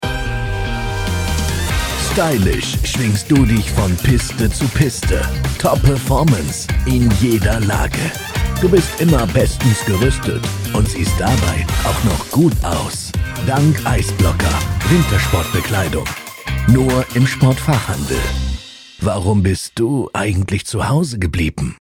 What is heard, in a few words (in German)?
German commercial; professioneller deutscher männlicher Sprecher und Synchronsprecher